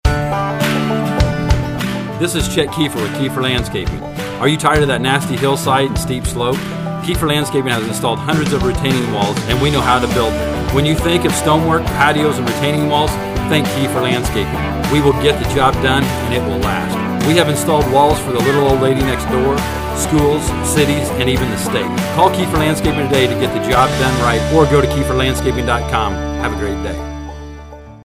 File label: male Retaining Walls; Kiefer Landscaping